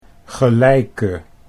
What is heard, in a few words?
"gelijke", Dutch